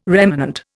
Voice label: "remanent", Indian